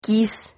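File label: "quiz", Spanish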